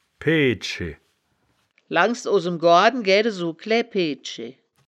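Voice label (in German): "peedschi", Wittlicher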